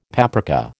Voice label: "paprika", American